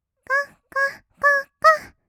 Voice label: gogo, kawaii voice; ASMR; 合いの手; 1200 kawaii voice!!